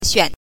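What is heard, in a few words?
"xuan", neutral tone, Mandarin Chinese pinyin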